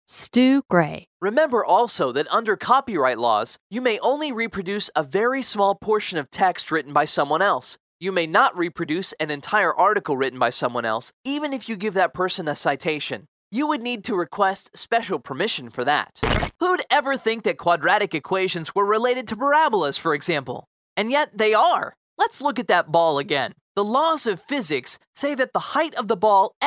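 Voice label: NARRATION E LEARNING, voiceover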